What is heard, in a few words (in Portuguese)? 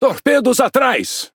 Speech Alarms Torpedo Back, PT BR; World Of Warships